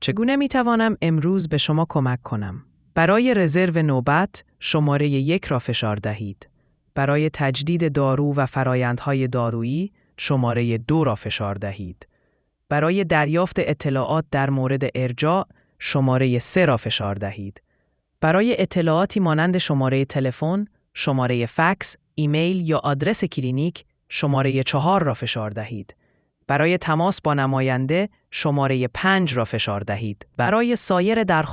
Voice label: question persian